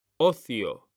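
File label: "ocio", Spanish, male; mekanta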